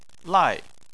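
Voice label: "lai", Chinese, fourth tone; Chinese Surnames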